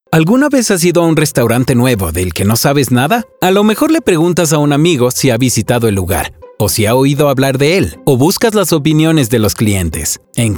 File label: spanish elearning